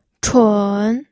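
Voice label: "chun", pinyin, third tone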